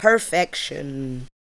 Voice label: ADLIBS 90; Ueberschall; Jam Box